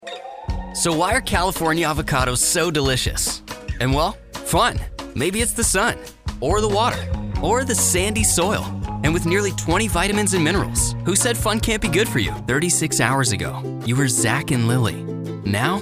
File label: Commercial; TaDa